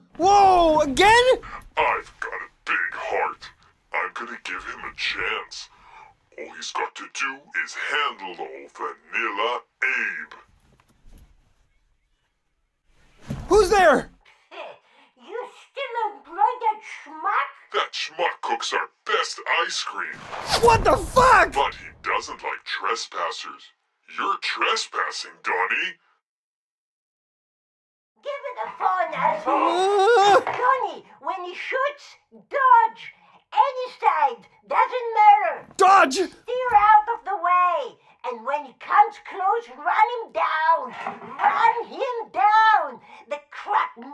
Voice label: tutorial dirt stop start; Blind Drive

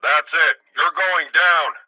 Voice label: police megaphone; Blind Drive

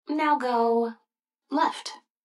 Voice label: GPS now go left; Blind Drive

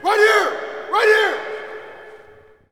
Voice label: Hockey Male "Right Here"; Hockey Vocal Sounds

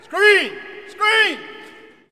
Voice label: Hockey Male "Screen"; Hockey Vocal Sounds

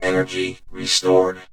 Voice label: Vocoder Energy Restored; Pickups

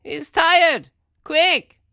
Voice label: Vulnerable Commentator; AudioWizards

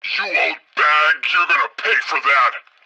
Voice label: tutorial chopper; midwave; Blind Drive

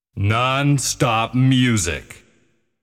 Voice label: Nonstop Music (one Liner)

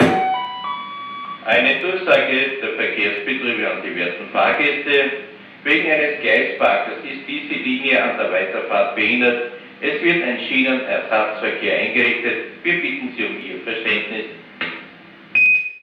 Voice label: speaker german train; best service Blue Box

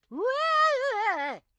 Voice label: grandma chopper annoyed; Blind Drive

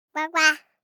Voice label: BABIES TALK Boy 12 months "Wogwa"; Baby Boom

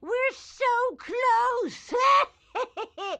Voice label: grandma mothercone close; Blind Drive

